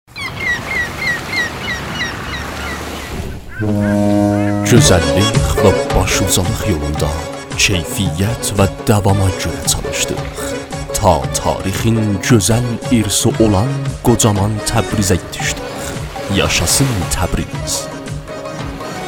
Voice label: turkish